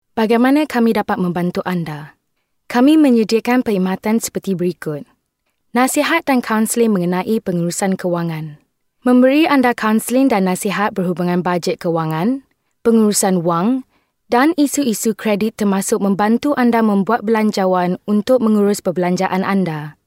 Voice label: Malay Corporate